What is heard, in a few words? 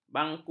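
"bangku", Malay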